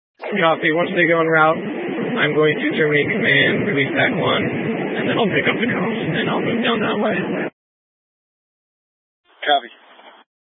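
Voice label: Incident Tac 1, Scanner audio recording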